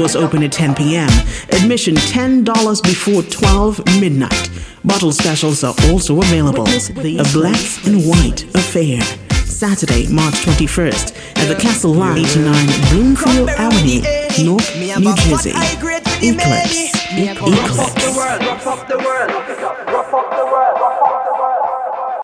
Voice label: Birthday Celebration commercial music